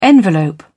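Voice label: "envelope", English, British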